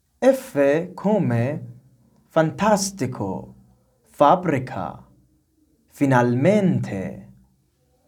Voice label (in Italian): italian